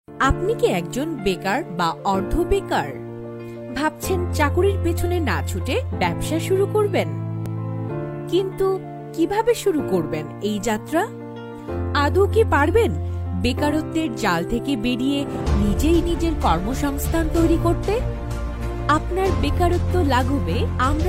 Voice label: business announcement voice

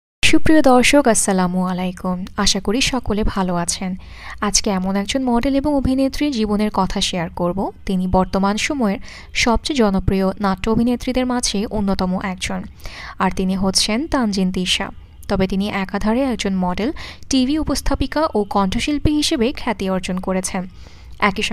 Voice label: story and documentary

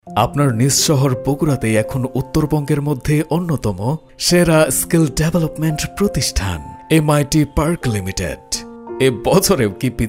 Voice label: promotional voice; it institute

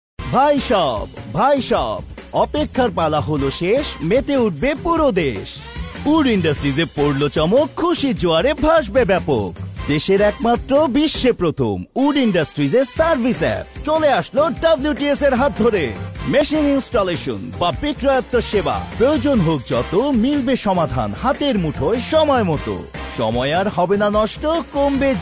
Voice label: promotion voice

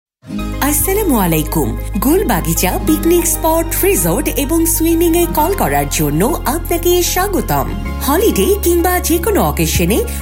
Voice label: caller tune voice; gulbagicha piknik spot

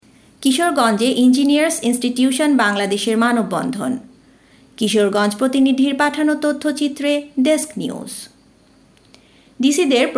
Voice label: news voice; impress voice

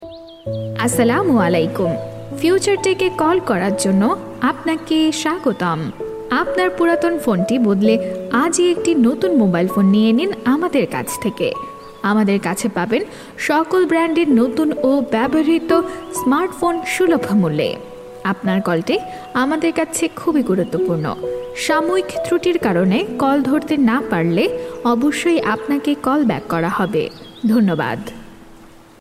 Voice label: future tech voice for caller tune

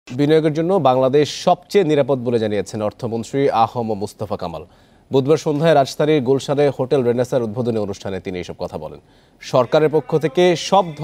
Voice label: bangla news

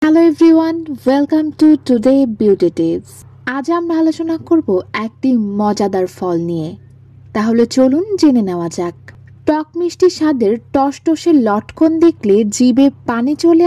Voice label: voice for documentary; lotkon

